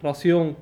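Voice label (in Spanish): "racion", Cuba, Havanna; Kuba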